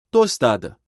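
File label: "Tostada", Portuguese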